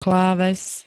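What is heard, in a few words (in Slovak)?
"kláves", Slovak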